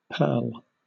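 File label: "purl", British English; pronunciations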